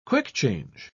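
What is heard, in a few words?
"quick-change", British English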